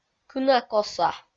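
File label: "кына-коса", Komi, female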